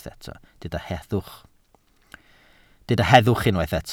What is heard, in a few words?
"heddwch", Welsh